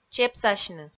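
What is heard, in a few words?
"чепсасьны", Komi, female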